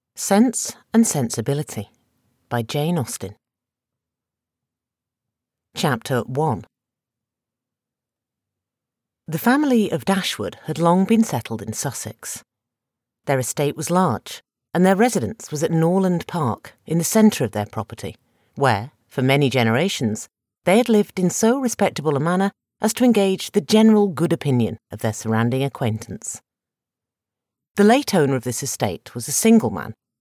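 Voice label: fiction narration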